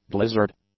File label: "blizzard", English; nouns